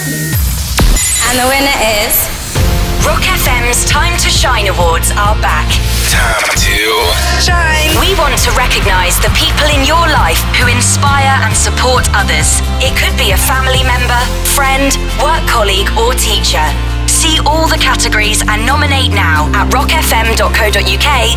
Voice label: Radio SP Showreel